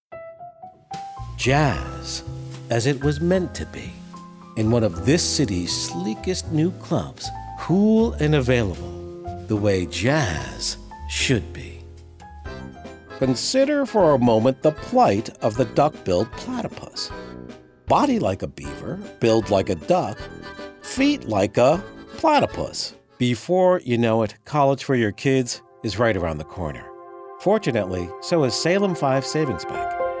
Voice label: COMMERCIALS